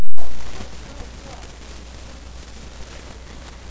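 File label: "beam", spoken word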